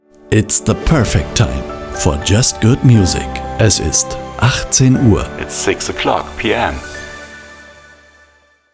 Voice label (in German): Zeitansage 18 Uhr; Abend